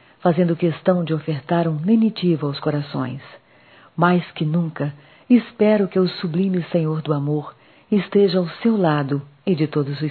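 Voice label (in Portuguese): Páscoa Neutra, female, Telemensagens, Só Voz; Telemensagens 2017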